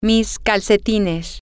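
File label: female, spanish; socks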